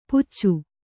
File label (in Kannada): "ಪುಚ್ಚು", Kannada